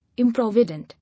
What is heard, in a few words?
"Improvident", English